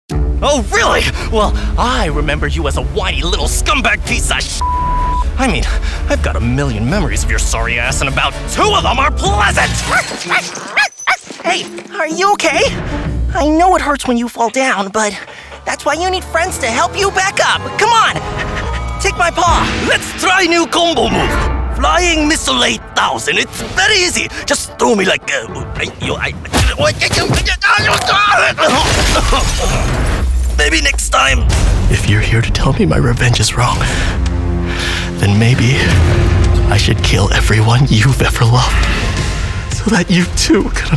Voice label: Animation, en-AU